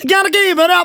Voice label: Phrase Male; Vengeance Samples